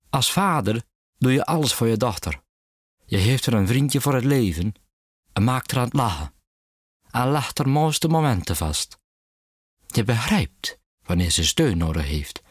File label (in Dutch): Stem Demo Zeeuws